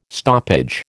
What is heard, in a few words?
"stoppage", American